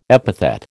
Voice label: "epithet", American